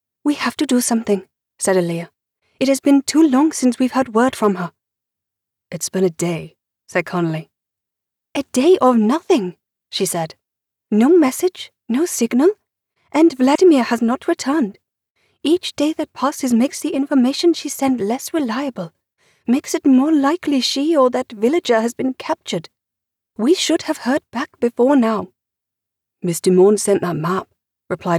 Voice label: Middle Eastern American and Scottish accents